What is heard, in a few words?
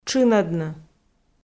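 "тшынӧдны", Komi, female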